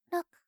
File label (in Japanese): 畳み掛けカウント